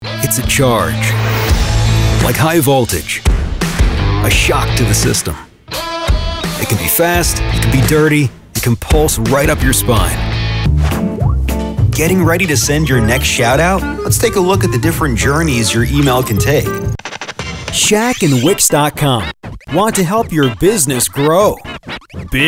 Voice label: commercial demo